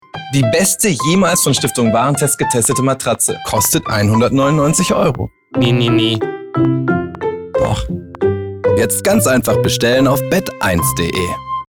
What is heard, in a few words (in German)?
Radio Spot